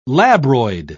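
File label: "labroid", British English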